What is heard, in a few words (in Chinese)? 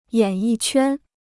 "演艺圈", Chinese